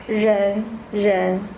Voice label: ren female